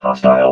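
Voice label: "hostile", robot voice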